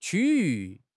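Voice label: "区域", Mandarin Chinese; HSK6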